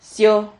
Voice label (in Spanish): "sio", Silabas